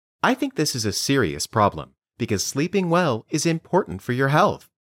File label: Dictation